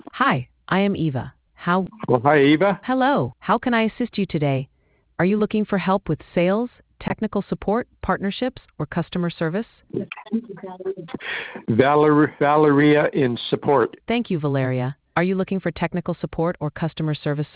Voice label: conversation